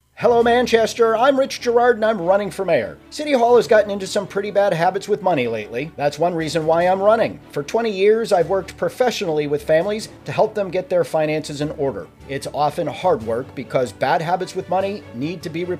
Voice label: radio spot; spending and taxes